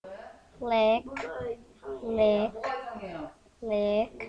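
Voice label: "lick", spoken word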